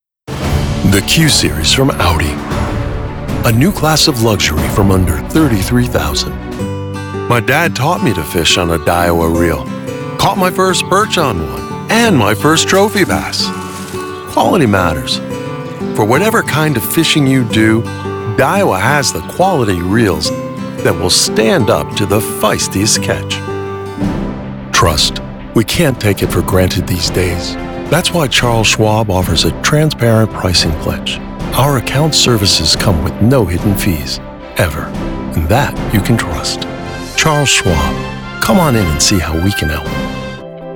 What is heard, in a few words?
COMMERCIAL